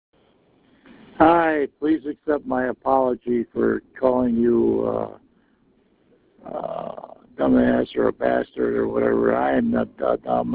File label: voicemail